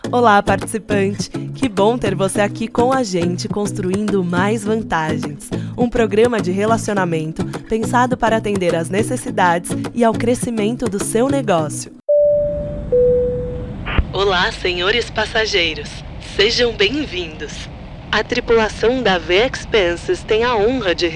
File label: Tutorial : Welcoming